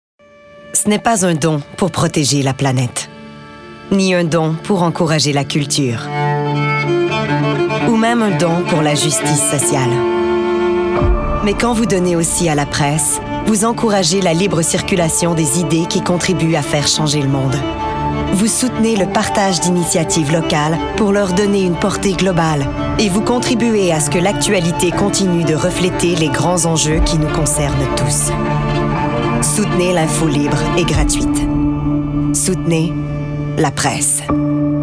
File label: voice acting; Publicity